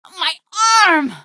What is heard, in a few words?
my arm, female; Garry's Mod; hl2